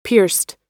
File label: "pierced", US